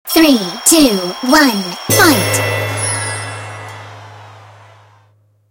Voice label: arena start count en